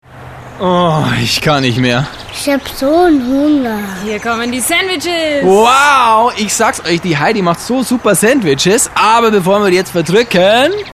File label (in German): sprechszene